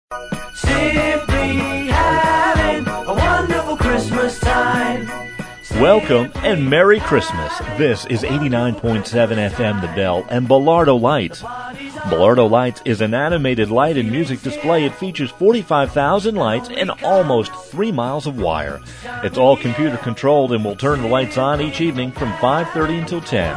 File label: opening announcement